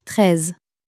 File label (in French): "treize", French